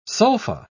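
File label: "sulpha", British English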